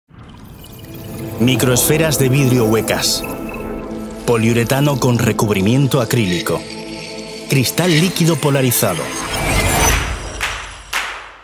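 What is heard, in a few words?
es corporate, Spanish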